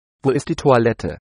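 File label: toilet, German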